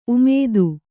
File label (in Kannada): "ಉಮೇದ", Kannada